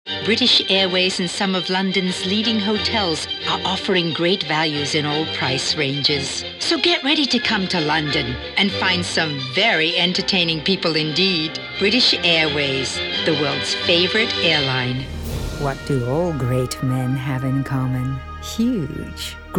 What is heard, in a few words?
Commercial